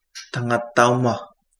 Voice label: priest, Kapingamarangi